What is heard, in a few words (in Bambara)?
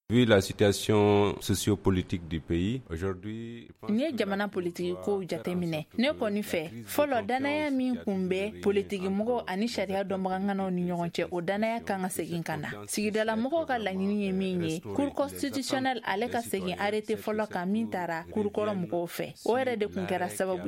magazine bamanankan